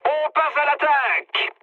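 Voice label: French enemy detected mood med; War Thunder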